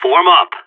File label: radio regroup; Counter Strike; CS:GO; seal